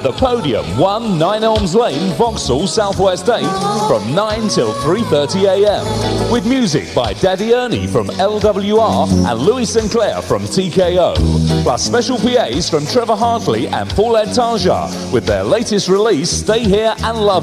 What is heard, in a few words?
Promotions Radio Advert; Horizon Radio Adverts 1981 to 1985